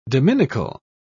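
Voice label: "dominical", British English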